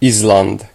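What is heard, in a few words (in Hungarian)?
"iceland", Hungarian; gcompris; geography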